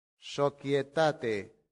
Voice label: "societate", latin; santillana

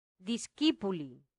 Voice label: "discipuli", latin; santillana

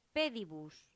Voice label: "pedibus", Latin; santillana

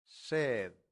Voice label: "sed", Latin; santillana

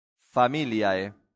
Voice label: "familiae", latin; santillana

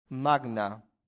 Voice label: "magna", Latin; santillana

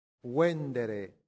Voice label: "vendere", Latin; santillana

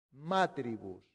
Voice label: "matribus", latin; santillana

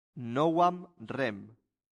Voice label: "novam rem", latin; santillana

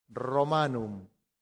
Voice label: "romanum", latin; santillana

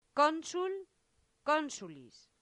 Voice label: "consul consulis", latin; santillana

